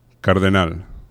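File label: "cardenal", Spanish; laboratori fonetica; aproximants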